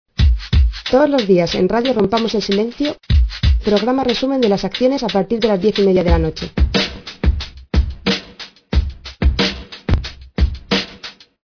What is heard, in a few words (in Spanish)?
Indicativo programa mujer